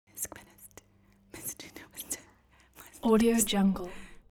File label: human vocal female whisper sequence; smartsoundfx